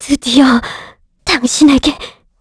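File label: Vox Dead, Korean; Hilda; kingsraid; voices heroes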